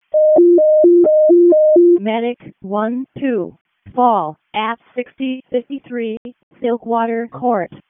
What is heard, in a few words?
EMS ALERT, p25; wake